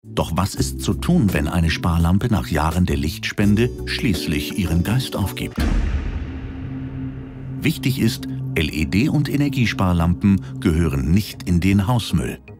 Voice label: Documentary Narrations General public, German voice-over, Explanatory and Captivating; Light recycling